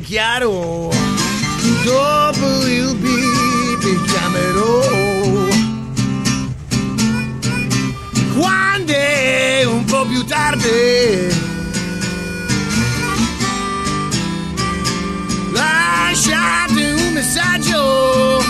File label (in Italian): Messaggi per la segreteria telefonica - Blues